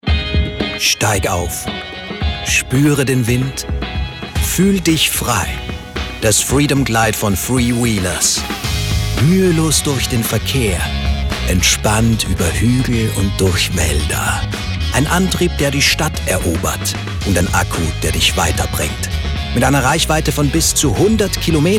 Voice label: Werbung dynamisch sportlich E-Bike

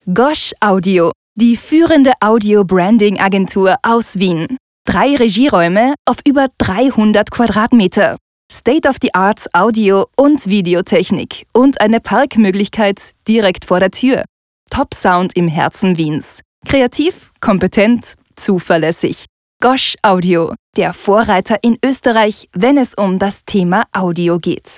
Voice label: plakativ, speakers

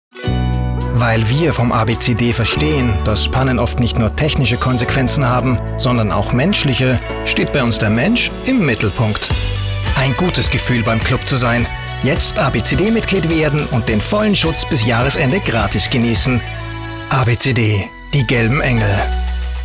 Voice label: Werbung

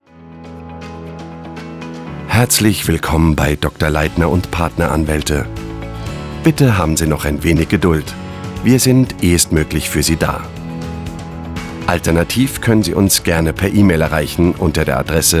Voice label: Telefon Kanzlei

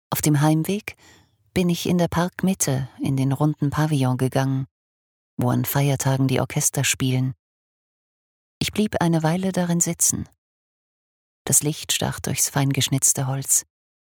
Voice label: Hörbuch; Die Atemschaukel

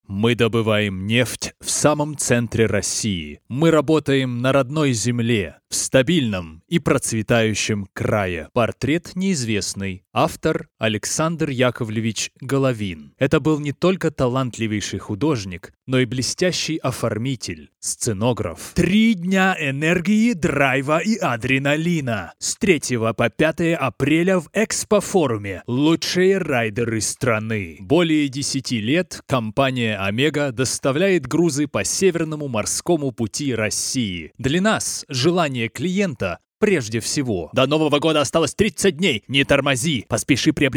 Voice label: Sprecher Russisch

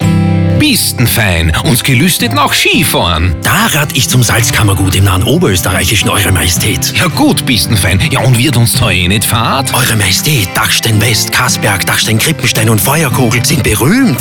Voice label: Werbung

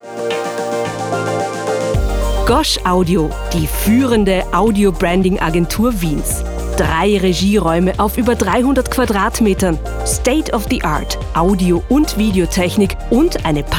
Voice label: Werbung

